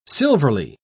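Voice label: "silverly", British English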